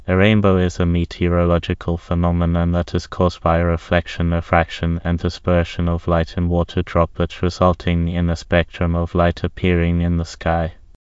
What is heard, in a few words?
speaker, en GB; piper voices; vctk